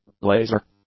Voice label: "laser", English; nouns